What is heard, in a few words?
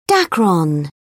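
"dacron", British English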